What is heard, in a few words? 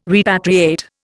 "repatriate", Indian